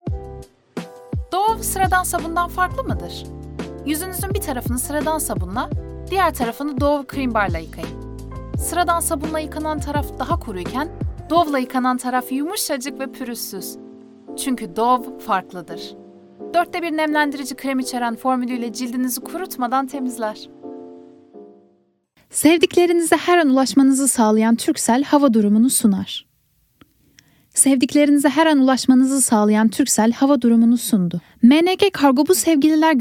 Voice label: Turkish